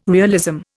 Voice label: "realism", Indian